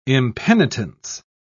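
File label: "impenitence", British English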